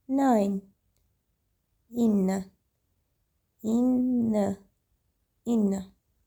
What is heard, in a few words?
9 inny, armenian